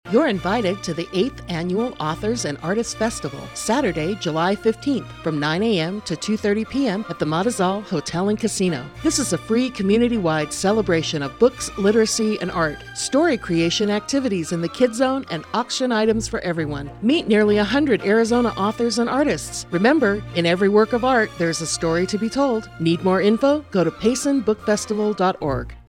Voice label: Audio advertisement